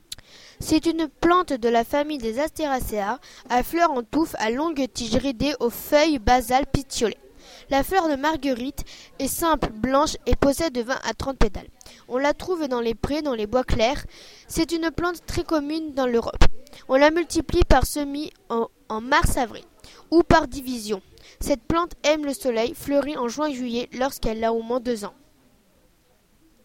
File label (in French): audio francais